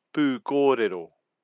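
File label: "pukorero", Māori; heritage defined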